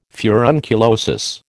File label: "furunculosis", American